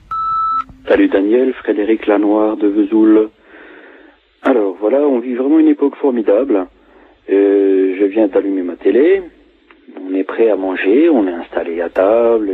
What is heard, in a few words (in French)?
Pub TV repondeur